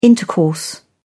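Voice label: "intercourse", English, British English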